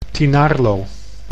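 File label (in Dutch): "Tynaarlo", Dutch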